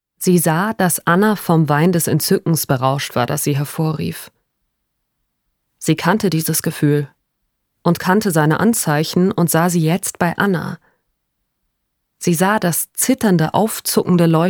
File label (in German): Hoerbuch; Anna Karenina